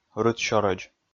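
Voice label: "рытсёрӧдз", Komi, male; kpv audio dict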